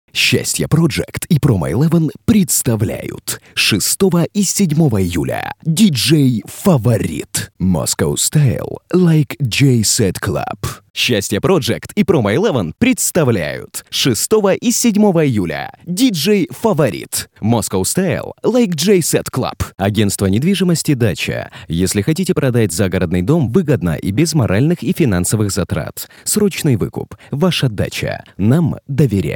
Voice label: russian; palyanytsa